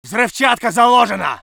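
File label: voice soviet infantry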